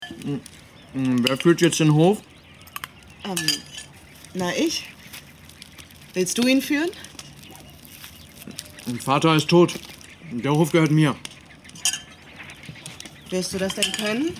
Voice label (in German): Hoerspiel; Erdsee